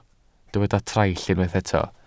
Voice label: "traill", Welsh